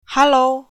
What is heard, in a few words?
hello, sundanese